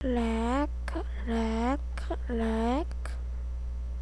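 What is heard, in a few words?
"lick", spoken word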